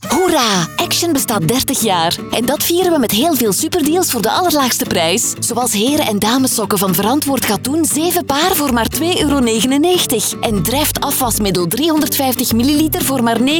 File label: flemish promos